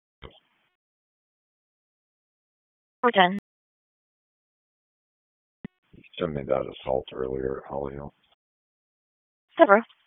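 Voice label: Raleigh Police Southeast District Dispatch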